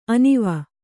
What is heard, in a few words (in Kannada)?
"ಅನುವು", Kannada